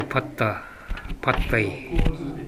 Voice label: "patta", minamiyamagata